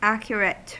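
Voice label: "accurate", American English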